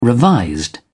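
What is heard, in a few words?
"revised", British English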